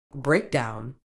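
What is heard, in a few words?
"breakdown", British English; ˈbreɪk.daʊn; BRAYK-down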